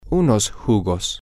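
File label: some juices, Spanish, male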